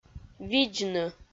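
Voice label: "видзны", Komi, female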